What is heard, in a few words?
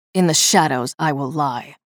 menu chatter; nyx